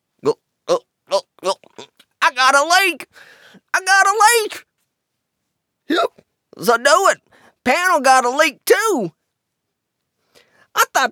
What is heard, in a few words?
ALIEN VOICES; DIALOGUE; PST; GLIB